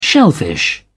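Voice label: "shellfish", British English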